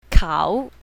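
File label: "kao3", Chinese pinyin, south, female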